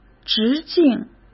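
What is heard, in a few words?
"直径", Mandarin Chinese; chinese-flashcards; hsk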